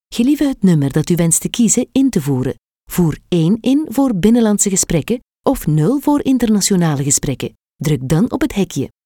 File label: Prepaid Enter Number, flemish; voice prompts billing